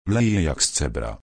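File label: rain, Polish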